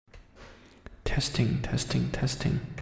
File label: after reverb